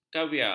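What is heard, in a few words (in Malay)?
"kaviar", Malay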